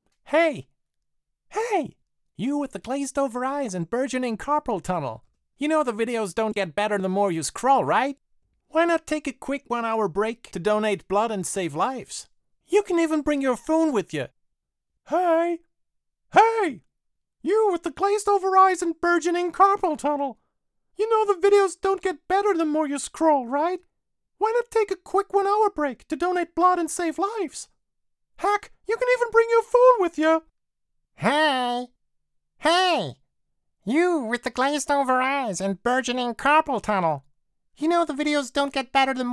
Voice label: english animation; actor de doblaje profesional

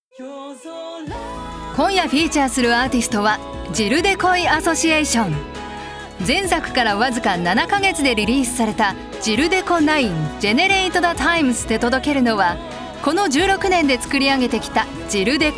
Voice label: japanese podcasts

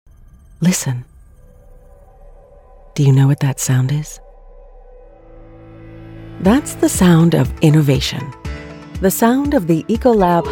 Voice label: english american explainer videos

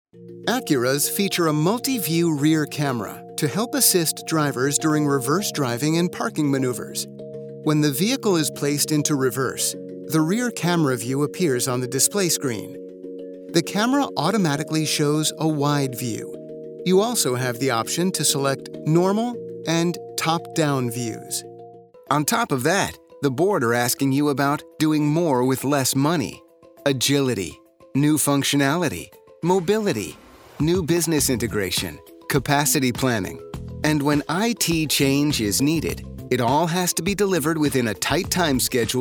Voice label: english american e-learning

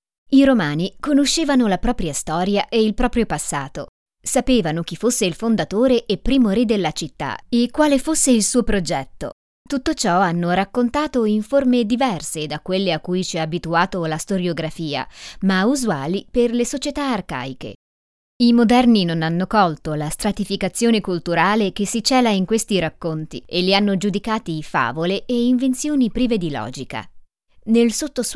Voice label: italian narration

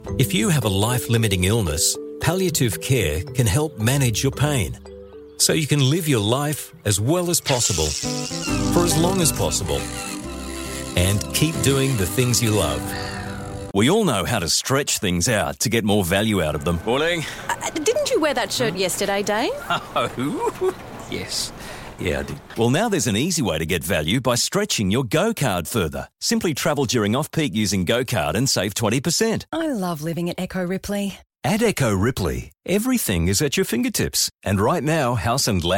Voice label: english australian commercial

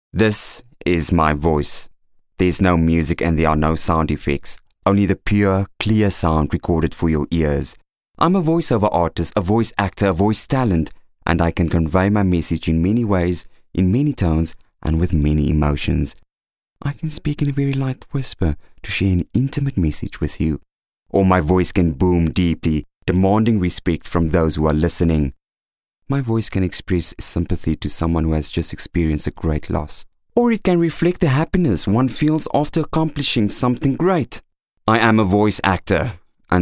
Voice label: afrikaans corporate videos

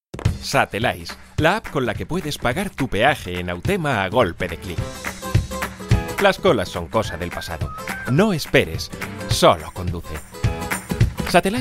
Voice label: spanish commercial

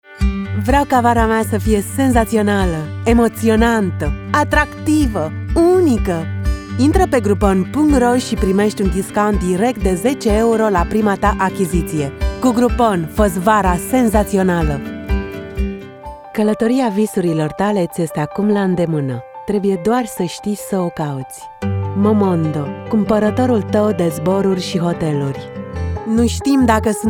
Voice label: romanian commercial

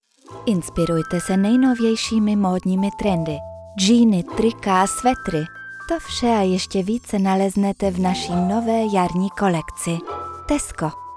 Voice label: czech audiobooks

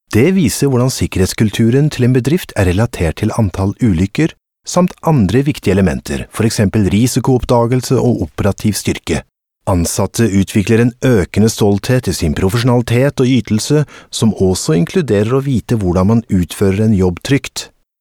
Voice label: norwegian e-learning; Deutschsprecher aus Berlin

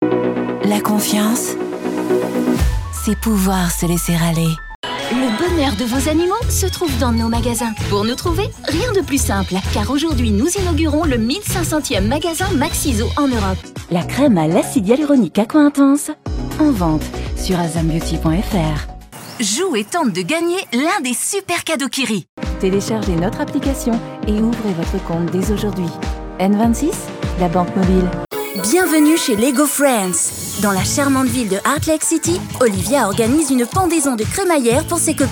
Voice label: french commercial

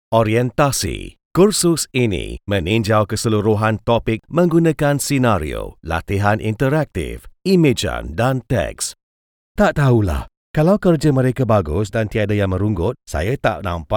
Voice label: malay commercial

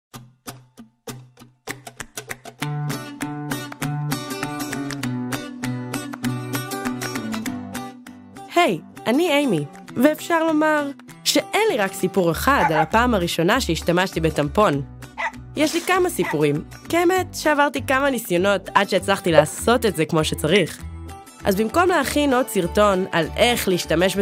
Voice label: english american commercial

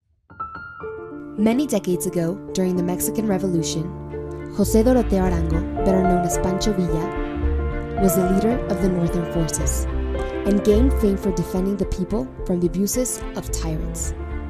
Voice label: english american corporate videos

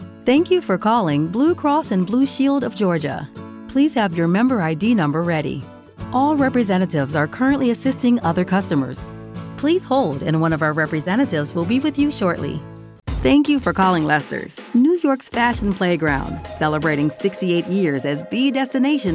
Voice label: english american ivr

